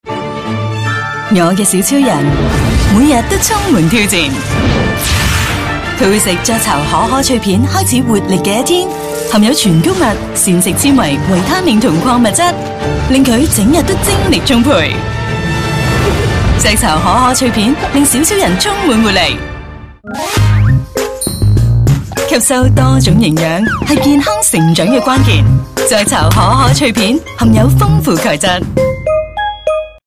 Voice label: Cantonese Female; KokoCrunch

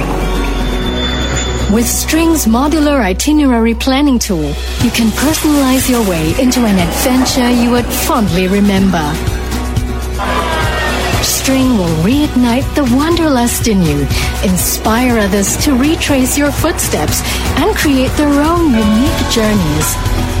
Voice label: Asian English Branding Travel; String

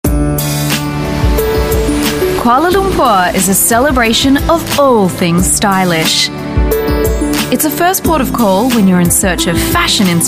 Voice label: AU English Voice Sample, Australian Female

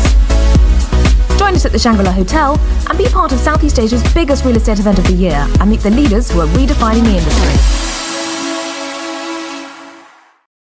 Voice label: UK English Property Awards; SE Asia

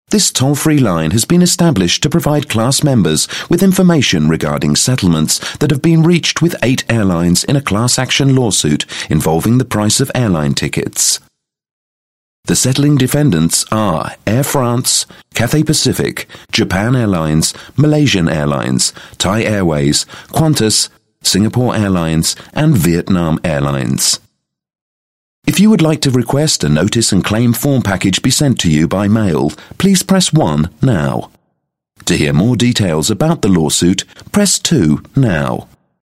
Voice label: UK English IVR, male

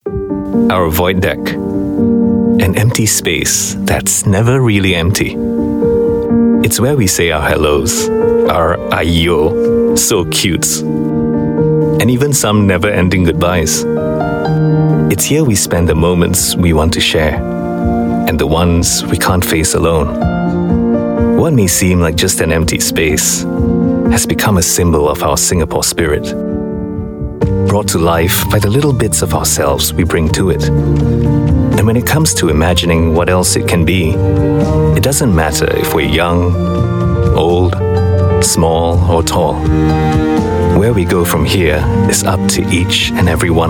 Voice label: Asian English Soft Read

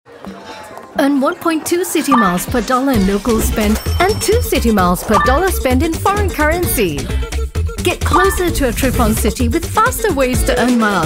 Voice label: Asian English Commercial; Citi Credit Cards

